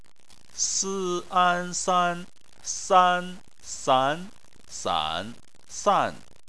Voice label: "san", chinese

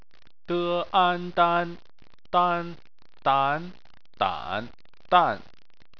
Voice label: "dan", chinese

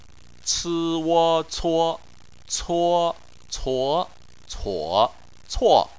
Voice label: "cuo", chinese

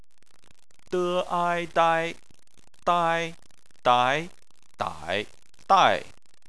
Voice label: "dai", chinese

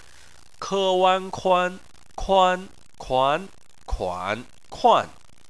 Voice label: "kuan", chinese

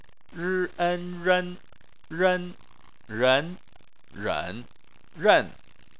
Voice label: "ren", chinese

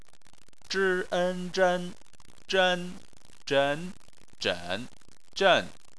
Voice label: "zhen", Chinese

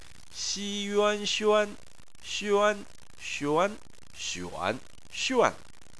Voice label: "xuan", Chinese

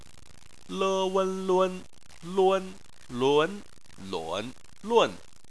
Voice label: "lun", chinese

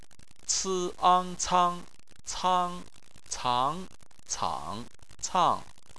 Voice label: "cang", Chinese

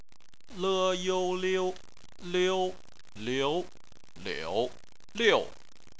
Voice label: "liu", chinese

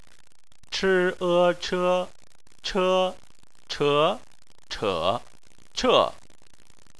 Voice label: "che", Chinese

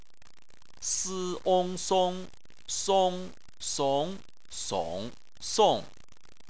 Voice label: "song", chinese

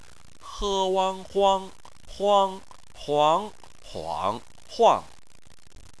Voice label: "huang", chinese

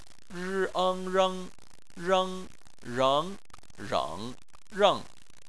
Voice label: "reng", chinese

